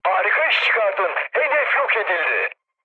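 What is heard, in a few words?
bomb success, mood high, Turkish; War Thunder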